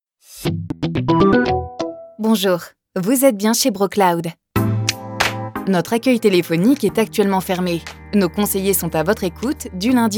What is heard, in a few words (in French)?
repondeur